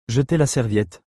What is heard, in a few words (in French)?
jeter la serviette, French; to throw the towel